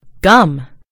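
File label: "gum", British English; gcompris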